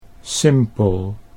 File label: "simpel", Dutch